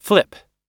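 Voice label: "flip", English; oxford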